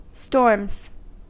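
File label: "storms", US English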